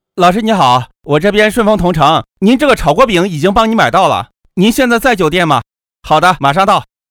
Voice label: 素人配音

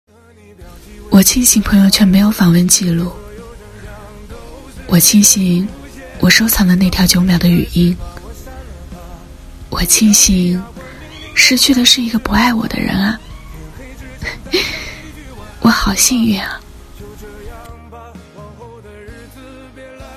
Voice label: 念白

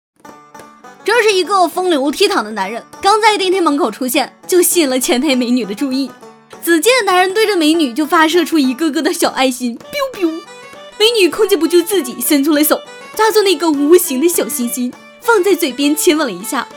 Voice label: 搞笑解说 东北话版本

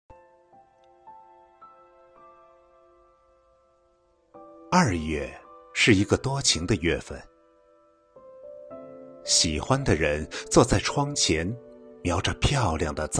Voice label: 娓娓道来讲述感

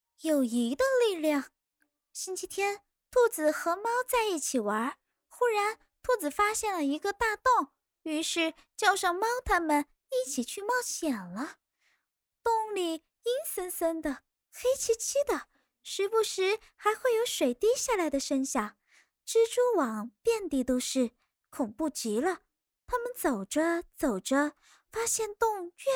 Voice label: 儿童电台女播

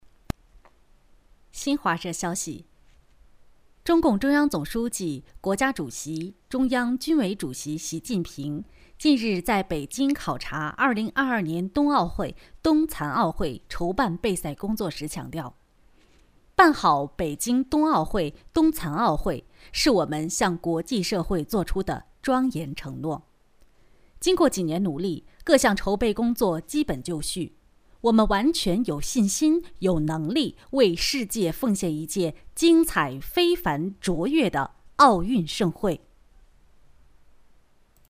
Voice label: 新闻稿

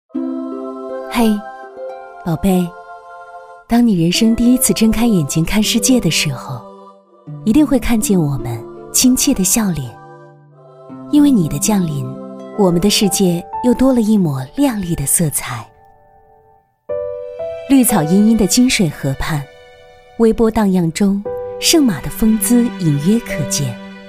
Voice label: 广告 温情温柔母爱 医院

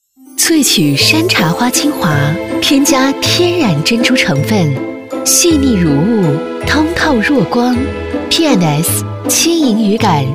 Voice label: 萃取 山茶花 精华 添加 天然 化妆品 广告

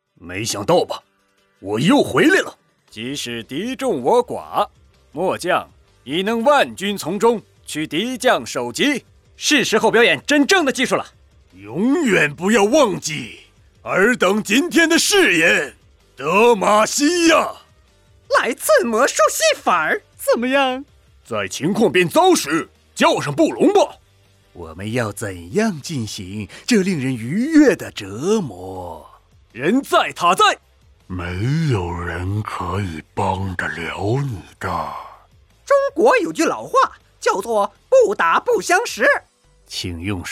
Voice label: 游戏 配音

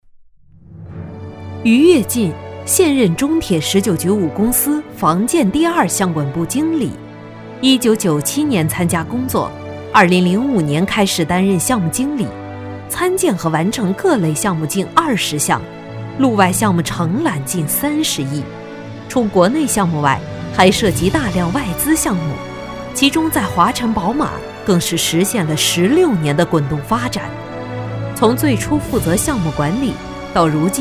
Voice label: 人物宣传 大气磅礴 劳模; 中铁十九局劳模

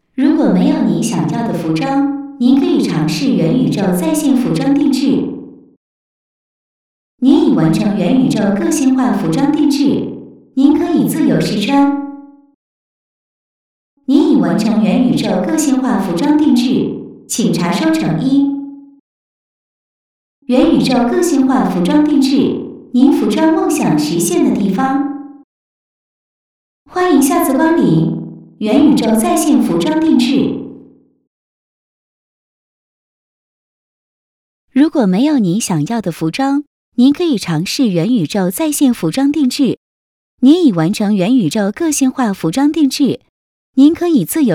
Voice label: 机器人效果

